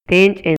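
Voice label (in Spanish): Maya, Yucatán, male; MOVIMIENTO; INALI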